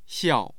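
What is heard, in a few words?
"xiao4", Mandarin Chinese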